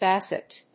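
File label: "basset", English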